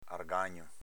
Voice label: "argagno", dialetto veneto; s.m. attrezzo, arnese, congegno